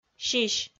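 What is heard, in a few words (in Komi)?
"сись", Komi, female; kpv audio dict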